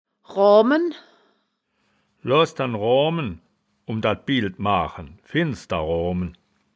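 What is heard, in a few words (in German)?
"roahmen", Wittlicher Wörterbuch; das wittlicher woerterbuch; woerterbuch vertonung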